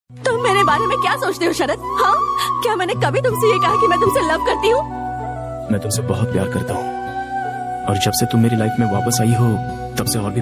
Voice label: sad emotional dialogue ringtone hindi dialogue ringtone; Yash; south movie; Googly